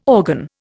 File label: "organ", Indian